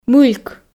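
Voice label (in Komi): "мыльк", Komi, female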